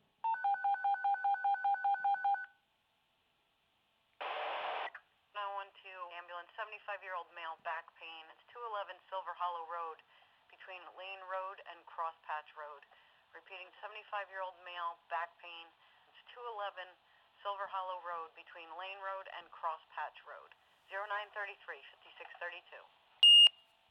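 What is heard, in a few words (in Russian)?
аварийно-диспетчерская 911 звонок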